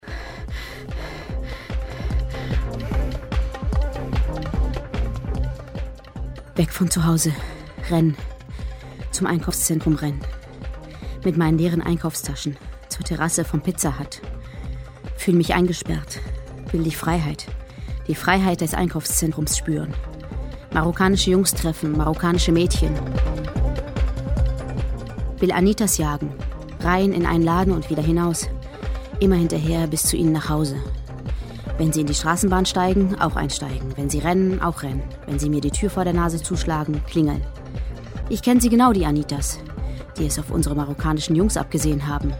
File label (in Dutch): duits